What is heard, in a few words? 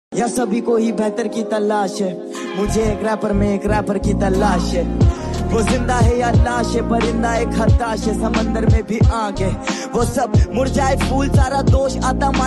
Shayari Ringtone